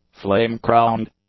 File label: "Flame-crowned", English; nouns